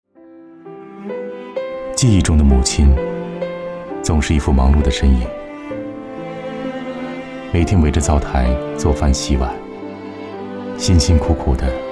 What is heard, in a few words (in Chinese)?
广告 感恩母亲, 国语男声配音, 温情